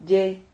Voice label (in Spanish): "lle", Silabas